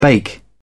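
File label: "bake", British English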